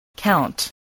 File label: "count", voice spelling